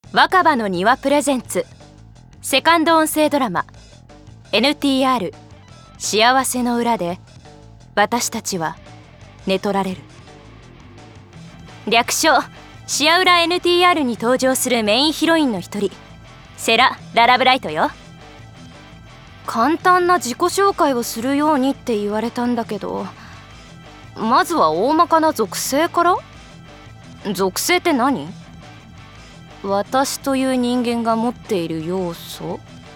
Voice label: タイトルコール＋自己紹介; セラVer

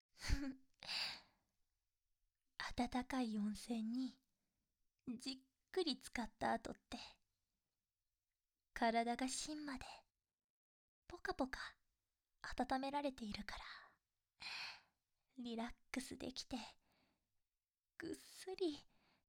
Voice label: 添い寝; シベリアンハスキーの新人耳かき師・颯; BGMあり

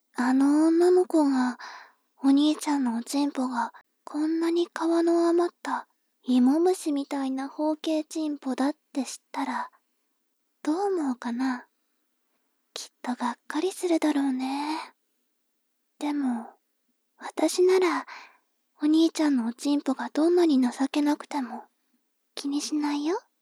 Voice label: 妹 台詞

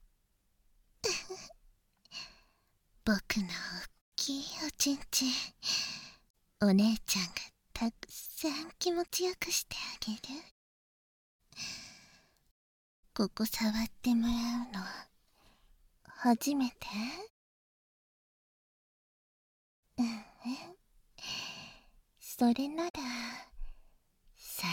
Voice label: （バイノーラルマイク 効果音なし）; 後ろから抱きつき

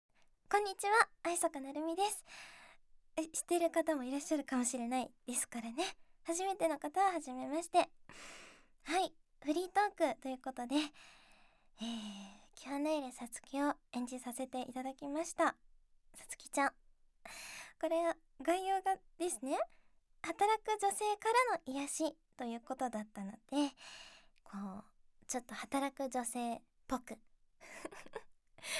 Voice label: フリートーク

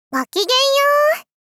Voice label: ごきげんよう！ 少女×中音域

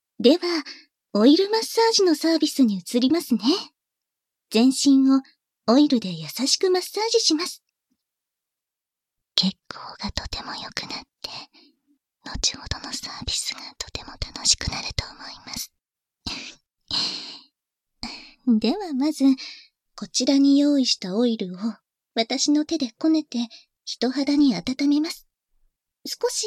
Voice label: オイルマッサージ, 効果音あり

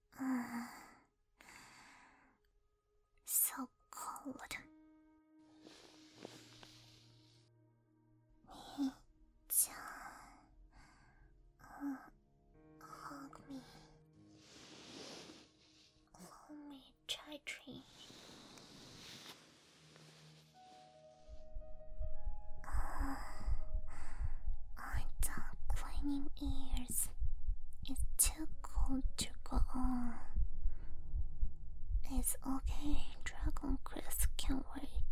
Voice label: 添い寝

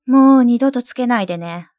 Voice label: シャットアウト, システムボイス; 健気な許嫁のほのあま耳かき; ドット＊ハーフ; 罵りシステムボイス

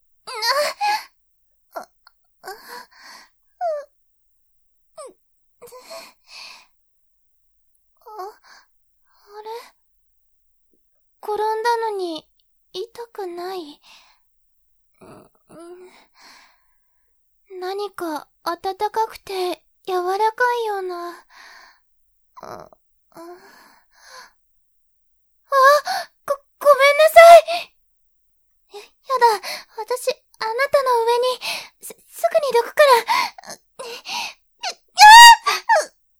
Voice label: ボイスのみ

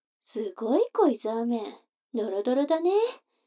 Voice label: 女の子台詞

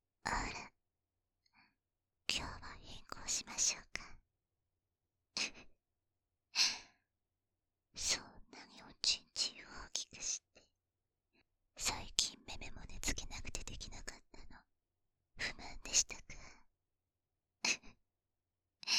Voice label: 右耳至近距離ささやき; 「あら。～愛し合いましょうか、めぇ。」

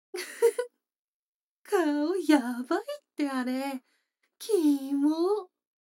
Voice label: 女の子台詞, ASMR

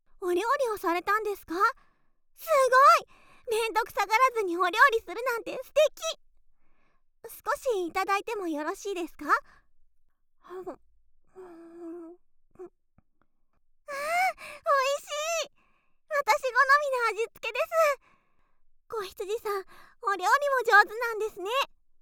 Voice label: 褒め お料理をしてえらい！

